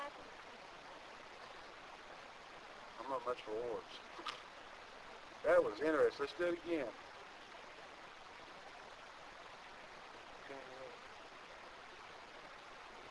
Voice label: Tractor Unexplained Male voice COME; Lake Shawnee Park; Olympus; COME UP